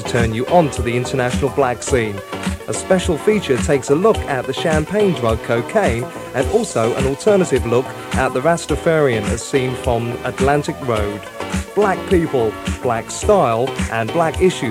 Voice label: Magazine Radio Advert; Root; horizon radio adverts